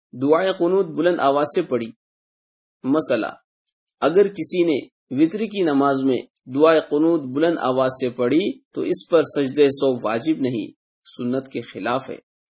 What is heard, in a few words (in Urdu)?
Dua E Qunoot buland awaaz se padhi